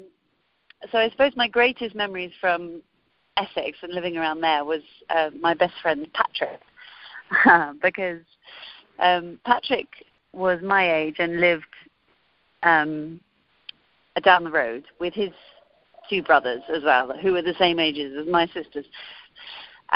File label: RP; europe; british; Pooh Sticks